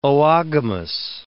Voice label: "oogamous", English

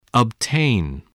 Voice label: "obtain", voice, spelling

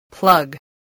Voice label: "plug", English

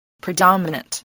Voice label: "predominant", voice, spelling

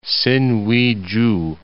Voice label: "Sinuiju", English

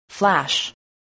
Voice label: "flash", spelling

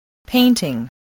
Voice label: "painting", English voice

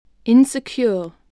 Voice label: "insecure", voice spelling

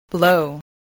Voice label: "blow", spelling

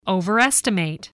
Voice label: "overestimate", English voice

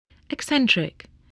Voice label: "eccentric", English

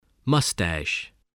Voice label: "mustache", voice

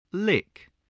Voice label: "lick", English

